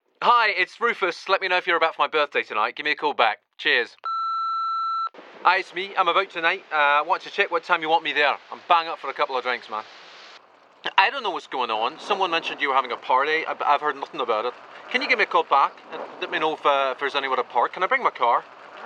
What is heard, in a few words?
Accents